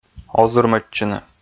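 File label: "озырмӧдчыны", Komi, male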